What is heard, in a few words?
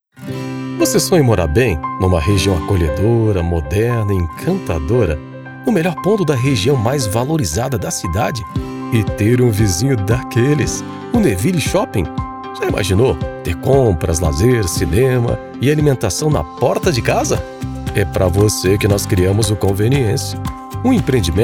portuguese brazilian real estate